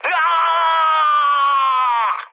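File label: Korean damaged pilot mood med, speech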